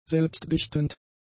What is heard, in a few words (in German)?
"selbstdichtend", German; adjectives